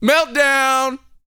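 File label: talk female, sci-fi; 5 SCI-FI CHZ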